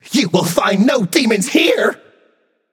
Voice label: taunt directed; zongkui; smite; agni